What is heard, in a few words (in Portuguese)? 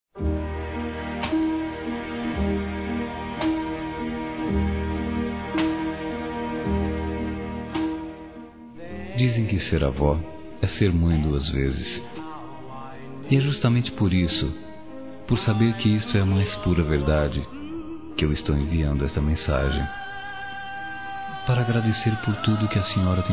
AVÓ M, Dia das Mães, Masc; Telemensagens 2017